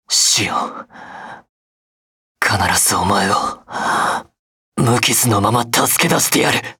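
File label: start, Japanese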